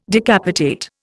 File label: "decapitate", Indian